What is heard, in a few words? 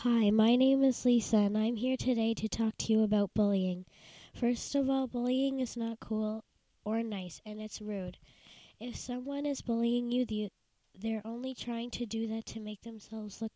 radio ad